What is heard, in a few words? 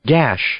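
"gash", English; noun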